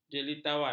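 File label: "jelitawan", Malay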